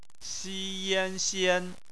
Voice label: "xian", Chinese; phonetics